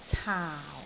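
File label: "caau4", Cantonese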